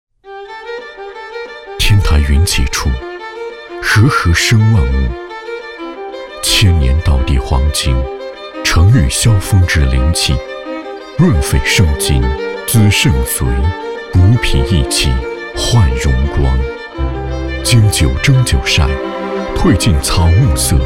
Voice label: 男 酒类广告; 黄精酒

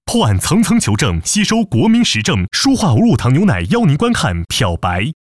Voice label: 男 沉稳口播广告

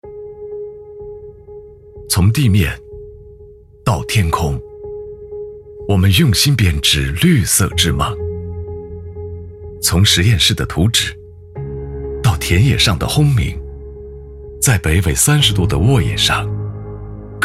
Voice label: 男, 专题

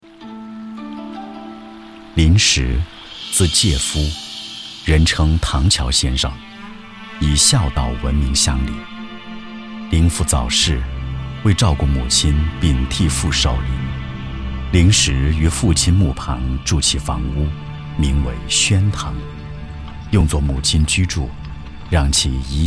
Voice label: 男 纪录片历史人物 自然讲述; 永嘉

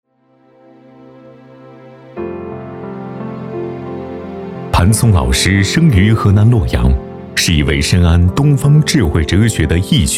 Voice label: 男 专题 老师简介; 磐松老师

